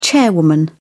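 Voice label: "chairwoman", British English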